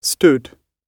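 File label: "stood", British English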